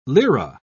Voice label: "lira", pronounce